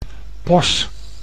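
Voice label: "pos", Dutch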